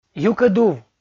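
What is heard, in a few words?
"юкӧдув", Komi, male; kpv audio dict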